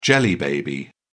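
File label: "jelly baby", British English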